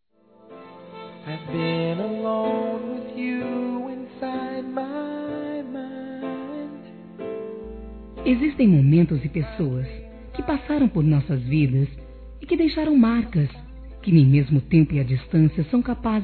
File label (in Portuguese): ANIV ex namorado f; Aniversários; ANIVERSARIO romantico; fem